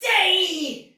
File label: Attack Normal Soldier Female, Battle Barks; Sinecure